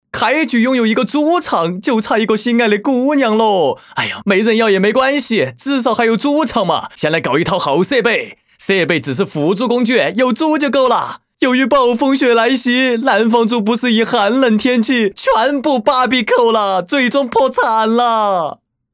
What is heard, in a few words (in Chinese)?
搞怪信息流; 开局一个猪场